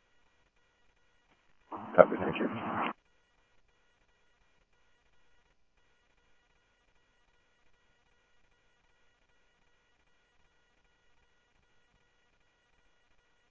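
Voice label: Mesa police department, scanner audio trunk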